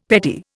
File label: "petty", Indian; Dictionary